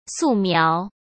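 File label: "素描", Mandarin Chinese; hsk; chinese-flashcards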